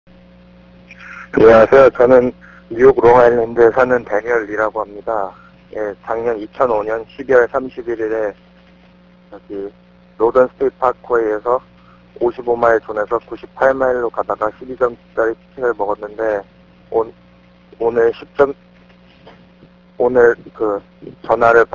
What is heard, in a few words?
korean; Arcade Town Court Traffic Defense Attorney